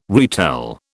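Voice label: "retell", British English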